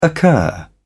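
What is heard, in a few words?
"occur", British English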